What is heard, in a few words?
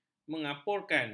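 "mengapurkan", Malay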